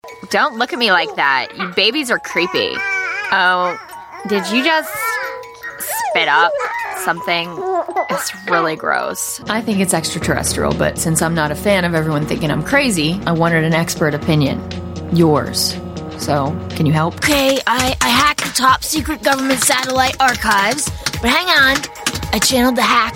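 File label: animation US English female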